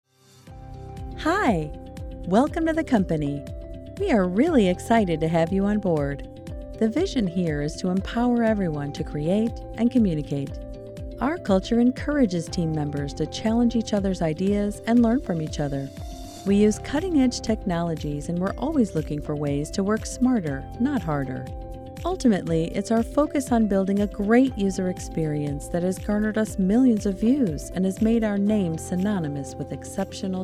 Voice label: NARRATION HR Onboarding Video

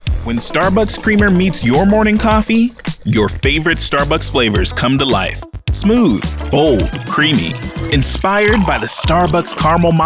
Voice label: Commercial

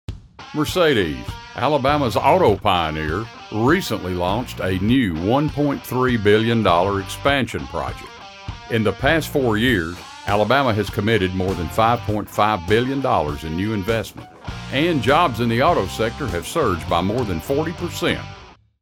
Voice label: Informational

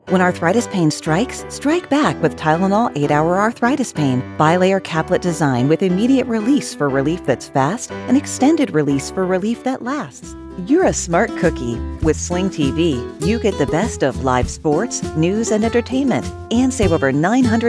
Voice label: Commercial

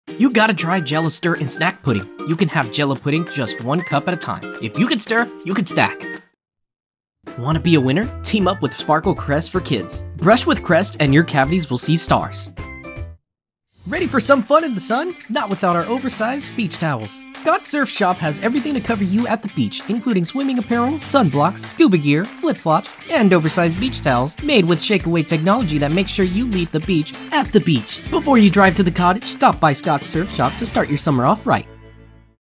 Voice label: Energetic AD Voiceover

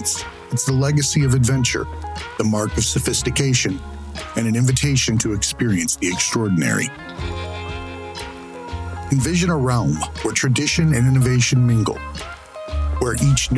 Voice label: Fragrances Luxury Corporate Narration; Ralph Lauren

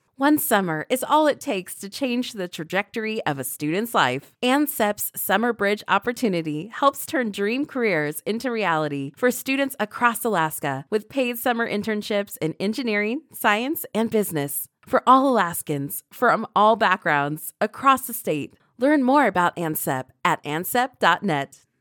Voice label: Online Ad Informative, Friendly, Optimistic

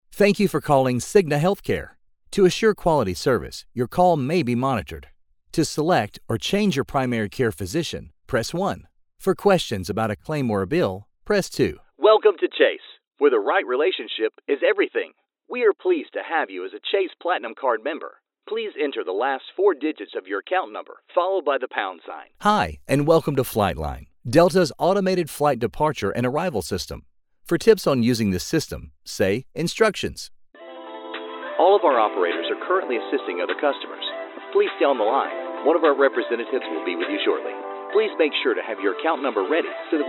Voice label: Phone IVR

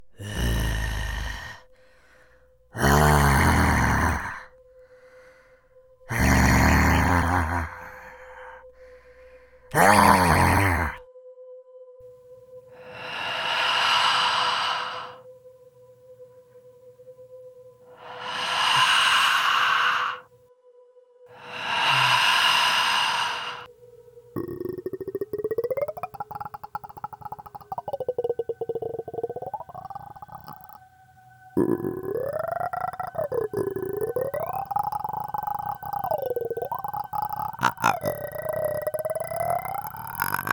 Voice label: Creature

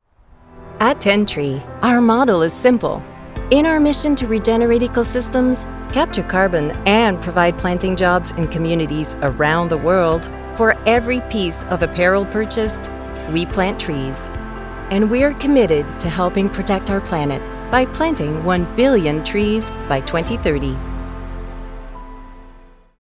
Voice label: Corporate; Tentree

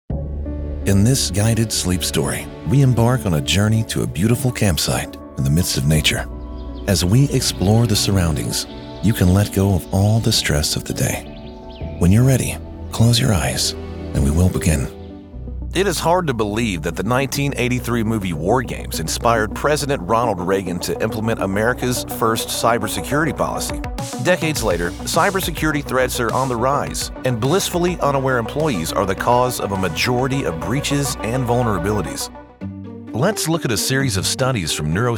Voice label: Narration; Westview Audio Productions; White Bluff, Ten

